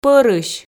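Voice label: "пӧрысь", Komi, female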